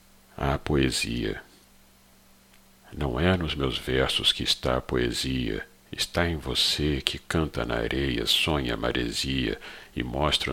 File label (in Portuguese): A poesia